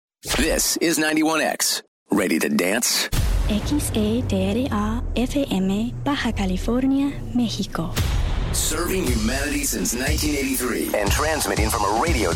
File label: IMAGING, voiceover; 91X